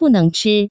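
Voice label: bu neng chi, Taiwan Mandarin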